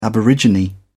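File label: "aborigine", British English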